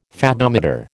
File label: "Fathometer", American